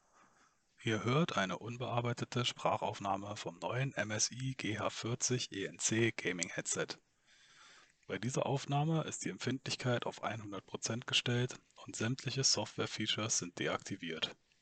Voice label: MSI GH40 ENC Stock

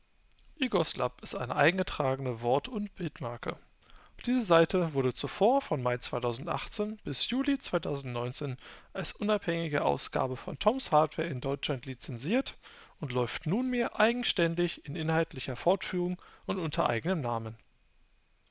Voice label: Mic Wireless

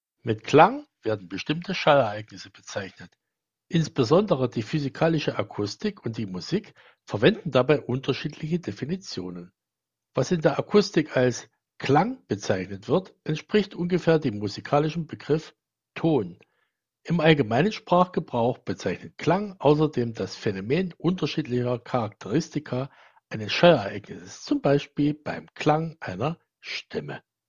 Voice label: Microphone

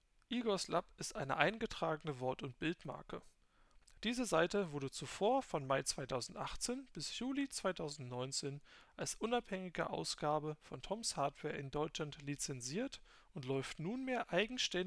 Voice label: mic wired

